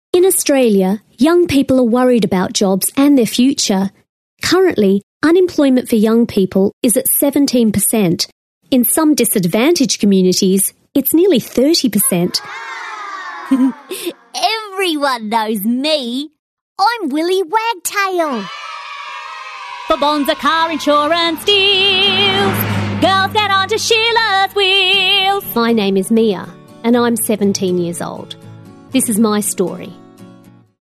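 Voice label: Australian English, female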